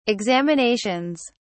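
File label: "examinations", British English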